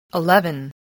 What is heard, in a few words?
"eleven", English